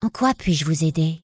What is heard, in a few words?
elf, female; PhoenixCraft-1.16.5; PhoenixNPCPack; minecraft